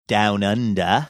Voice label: saying down under australian